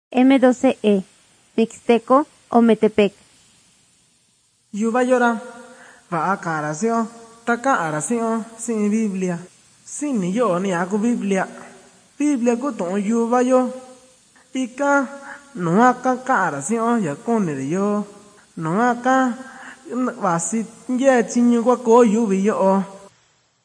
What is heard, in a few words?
mixtec diagnostic spanish mexico